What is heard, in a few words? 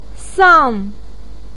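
"sang", Mandarin pinyin, fourth tone; 丧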